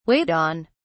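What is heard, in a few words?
"wait on", British English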